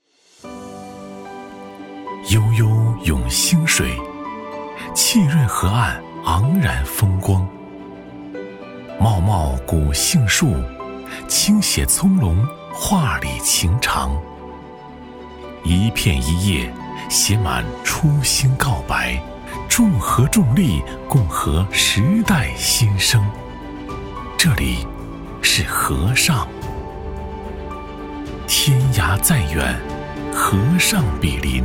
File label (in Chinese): 男 宣传片; 河上镇